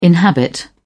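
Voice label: "inhabit", British English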